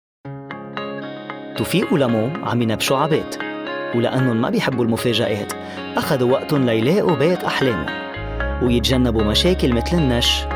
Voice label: male arabic and english usa lebanese arabic commercial